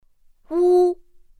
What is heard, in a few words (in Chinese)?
"wū", pinyin; pinyin2; pinyinji